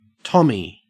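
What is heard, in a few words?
"tommy", Australian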